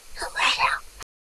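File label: Voice Acting es, female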